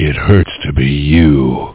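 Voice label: "hurts", English; duke3d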